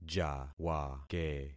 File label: "jawake", concat syllables; Affixing experiment